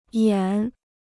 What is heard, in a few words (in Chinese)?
"演", Chinese